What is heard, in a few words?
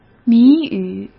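"谜语", Mandarin Chinese; chinese-flashcards; hsk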